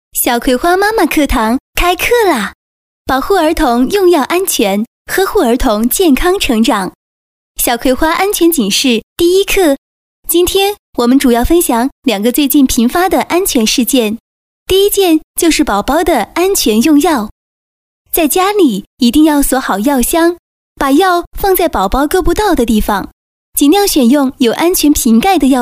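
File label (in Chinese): 广播提示 安全警示, 女, 普通话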